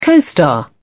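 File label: "co-star", English, British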